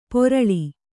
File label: "ಪೊರಳಿ", Kannada